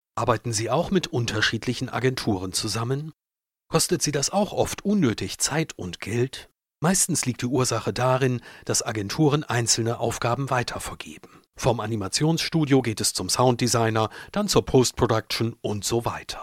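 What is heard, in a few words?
German, male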